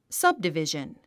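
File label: "subdivision", English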